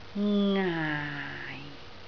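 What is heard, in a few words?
"ngaai4", Cantonese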